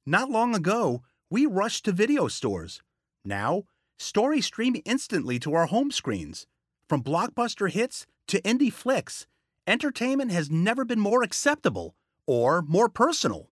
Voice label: Video Narration Streaming Conversational